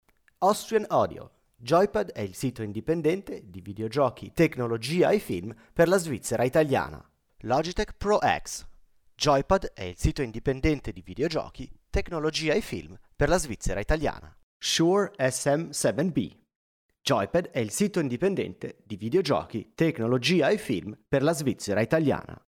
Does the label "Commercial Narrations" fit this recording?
No